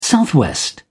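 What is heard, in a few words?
"southwest", English, British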